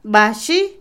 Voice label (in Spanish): Otomí, mujer; Acciones; escoba; INALI